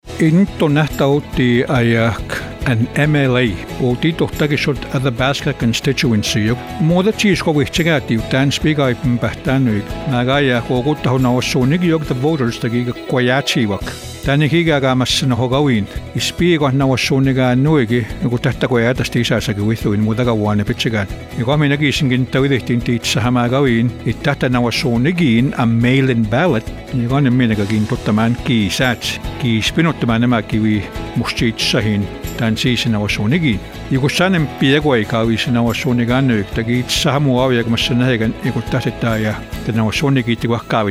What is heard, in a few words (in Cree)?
radio ad Cree